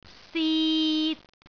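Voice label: "sit3", Cantonese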